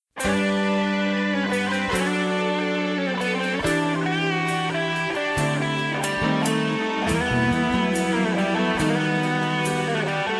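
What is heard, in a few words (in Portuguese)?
ROMANTICA EVANGELICA male, telemensagem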